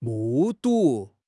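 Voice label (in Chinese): "目睹", Chinese; HSK6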